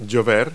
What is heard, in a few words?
"rain", verb; Multi-Lingual Talking Picture Dictionary